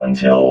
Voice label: "until", robot